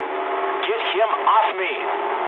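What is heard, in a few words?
aircraft damaged mood low, speech English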